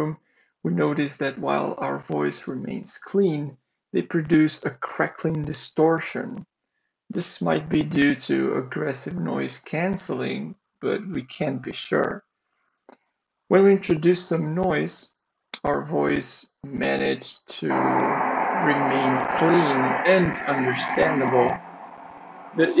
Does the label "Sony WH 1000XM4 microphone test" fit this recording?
Yes